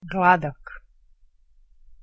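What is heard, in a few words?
"gladak" Bosnian, female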